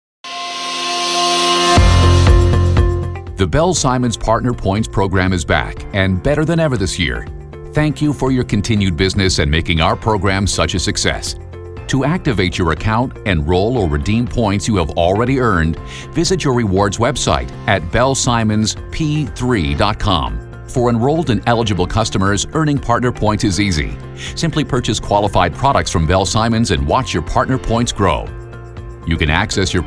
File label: TV Commercials; BUITENLANDSE VOICEOVER; stemacteur